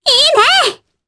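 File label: Vox Aff Japanese; Reina; kingsraid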